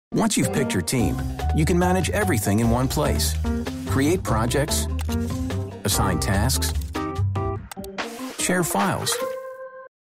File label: Explainer Welcoming Outgoing Good Natured